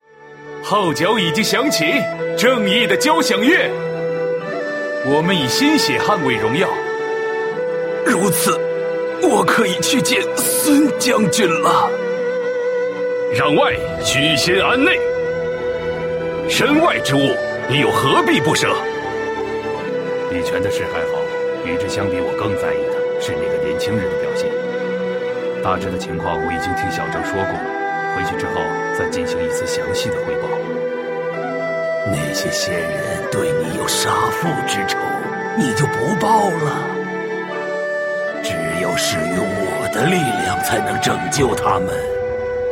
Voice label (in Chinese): zhonglaonian